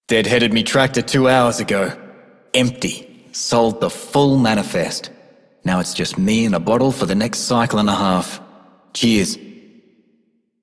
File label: male rail agent; Dialog; Warframe; Solaris Venus